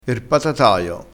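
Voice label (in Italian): "patataio", dialettale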